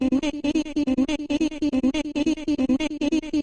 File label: 140 voxy echo; 140 bpm; stutter vox; REMIXABLE COLLECTIONS